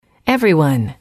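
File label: "everyone", English, female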